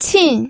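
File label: "qin", Mandarin Chinese, fourth tone; pinyin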